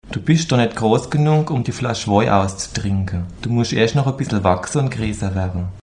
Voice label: estel, Dialekte

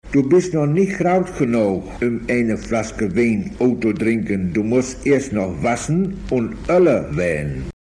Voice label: Dialekte Vorhelm

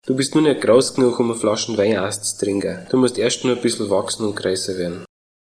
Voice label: kruppach, Dialekte

